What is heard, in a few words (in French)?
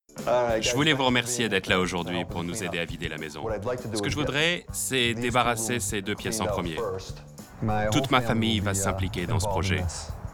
Bande voix off